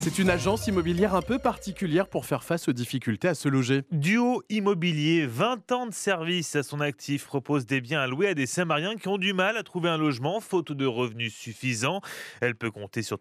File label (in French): reportage; Duo Immo-NET